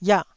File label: "iah4", Taiwanese syllable